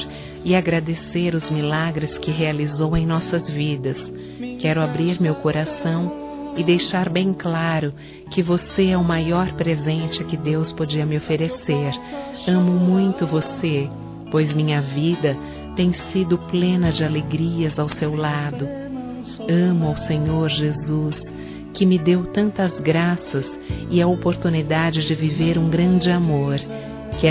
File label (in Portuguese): Natal Romantico Feminino; Telemensagens; Natal e Ano novo